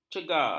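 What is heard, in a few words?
"cega", Malay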